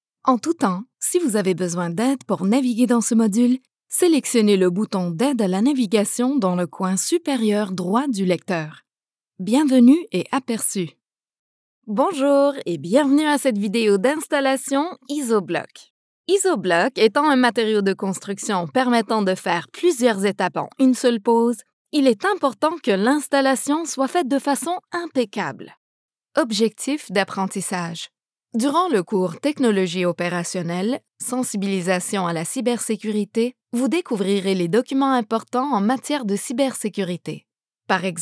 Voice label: eLearning French Canadian